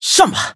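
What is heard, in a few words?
battle, Traditional Chinese; Cookie Run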